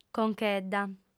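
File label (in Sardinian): "conchedda", female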